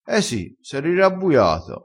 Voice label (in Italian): "rirabbuia", crespinese, vocabolario dialettale